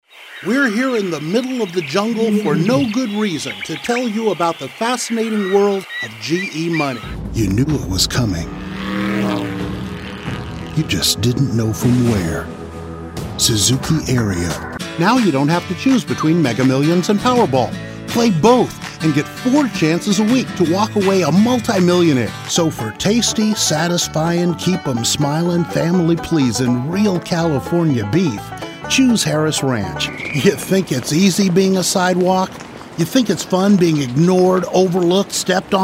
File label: Commercial